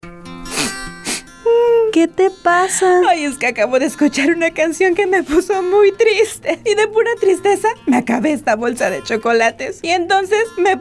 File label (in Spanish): spot comida emocional